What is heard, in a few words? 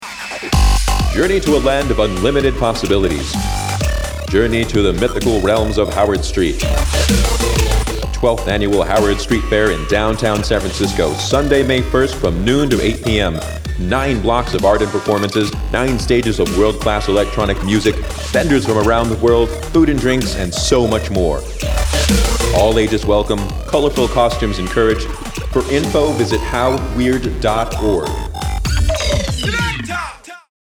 PSA w Beats; How Weird 2011